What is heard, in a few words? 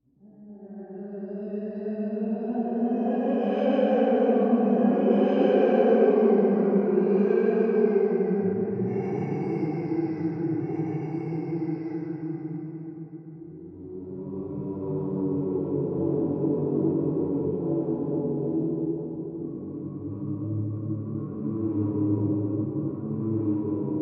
scaring voice; Inquisitor 2